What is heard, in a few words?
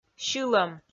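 "сьылӧм", Komi, female; kpv audio dict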